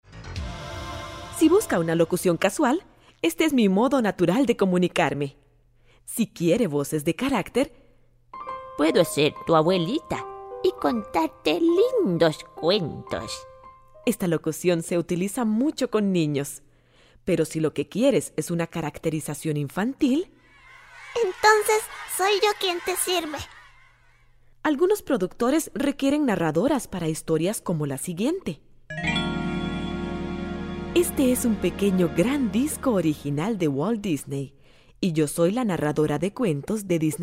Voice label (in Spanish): Locutora costa rica